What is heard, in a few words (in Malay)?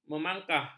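"memangkah", Malay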